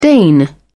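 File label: "deign", British English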